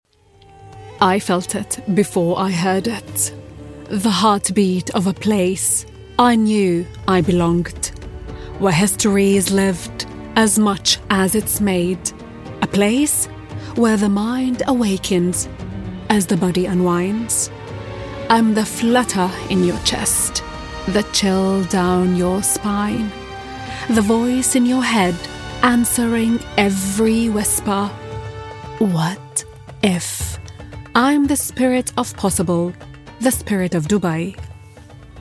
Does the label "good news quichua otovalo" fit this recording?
No